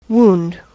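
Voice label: "wound" injury, US English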